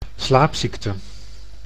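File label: "slaapziekte", Dutch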